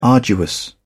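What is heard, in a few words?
"arduous", English, British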